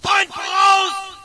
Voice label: ger enemy ahead; player; Source Engine; dod

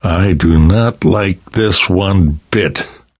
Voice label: reaction apprehensive, survivor voice; source engine; left 4 dead 2; namvet

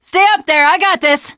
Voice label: jumping off bridge, teengirl

